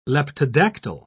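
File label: "leptodactyl", British English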